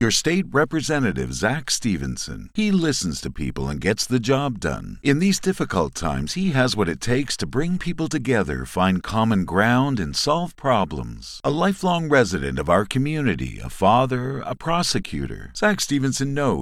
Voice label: english canadian political ads